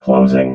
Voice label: closing, robot